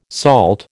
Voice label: "SALT", American

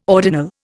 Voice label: "ordinal", Indian

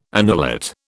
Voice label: "annulate", British

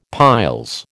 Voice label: "piles", American

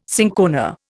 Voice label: "cinchona", Indian

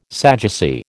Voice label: "Sadducee", American

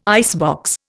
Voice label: "icebox", Indian

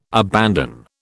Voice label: "abandon", British

